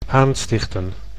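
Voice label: "aanstichten", Dutch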